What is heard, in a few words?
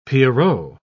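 "pierrot", British English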